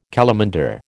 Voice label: "calamander", American

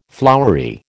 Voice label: "flowery", American

